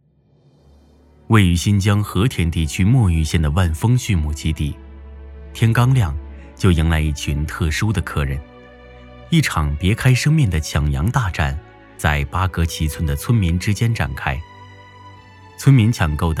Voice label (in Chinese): 纪实类新闻平缓